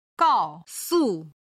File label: "gao4su5", Chinese